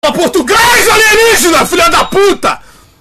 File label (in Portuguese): fala portugues alienigena